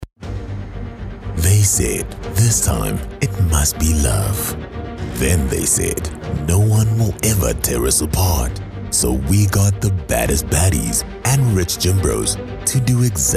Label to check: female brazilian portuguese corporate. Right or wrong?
Wrong